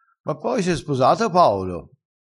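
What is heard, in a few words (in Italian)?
"giovanotto", vocabolario dialettale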